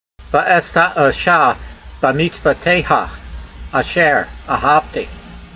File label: voice, hebrew language; Psalm 119:47; ve'eshta'a'sha be'meetsvoteyha a'sher ahavtee